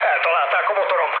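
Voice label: Hungarian damaged engine mood med, speech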